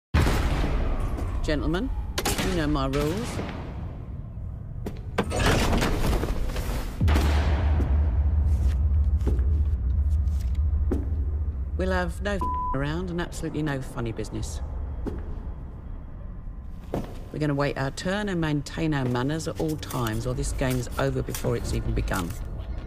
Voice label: Boxing Promo; Warren Hearn